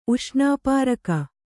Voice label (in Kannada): "ಉಷ್ಣಾಪಾರಕ", Kannada